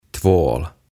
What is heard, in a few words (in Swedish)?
"Tvål" "Saippua"